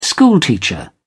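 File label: "schoolteacher", British English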